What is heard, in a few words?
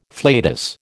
"flatus", American